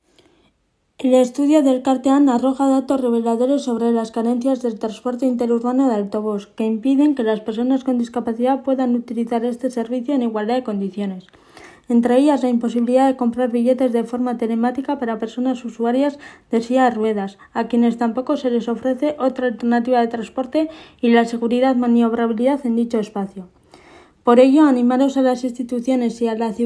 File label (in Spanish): castellano; accesibilidad del transporte público de autobús en euskadi